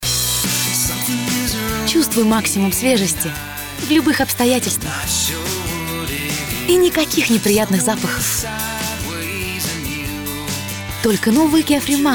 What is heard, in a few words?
woman carefree